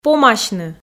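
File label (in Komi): "помасьны", Komi, female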